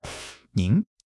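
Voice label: "您" Chinese; HSK1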